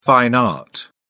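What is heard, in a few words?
"fine art", British English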